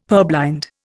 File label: "purblind", Indian; Dictionary